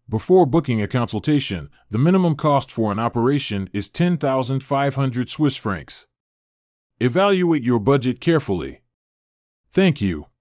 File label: Vocal pop up english; dr gho